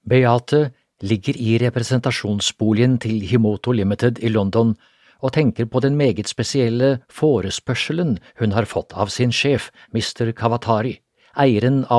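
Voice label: Norwegian Audiobook Male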